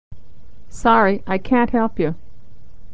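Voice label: can, American English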